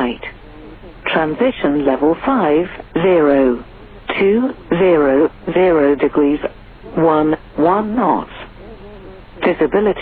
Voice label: ATIS Schiphol